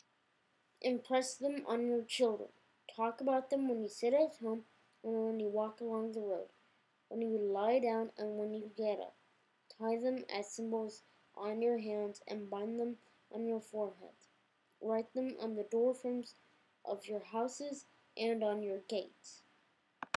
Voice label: Voice over; Shema Worship